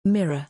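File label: "mirror", English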